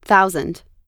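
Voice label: "thousand", English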